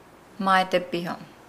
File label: "mai de biho", Nukuoro; headache